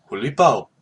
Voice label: cheers, hawaiian

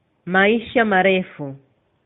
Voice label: cheers, swahili

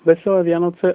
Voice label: christmas, slovak

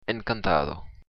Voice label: meet, occitan

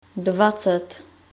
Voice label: "twenty", czech

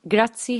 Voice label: thanks maltese

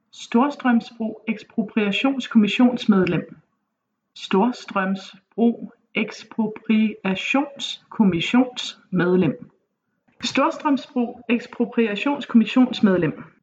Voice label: storstrom broek, danish tonguetwisters

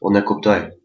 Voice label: understand, albanian gheg

